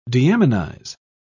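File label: "deaminize", British English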